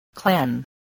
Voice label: "clan", English, voice